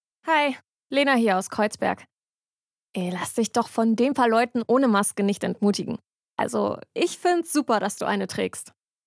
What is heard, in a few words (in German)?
Ansage; BVG